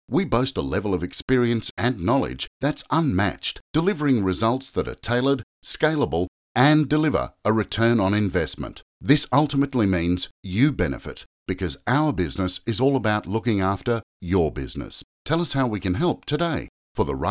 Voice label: Generic On Hold Messages